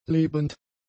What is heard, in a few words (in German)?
"lebend", German; adjectives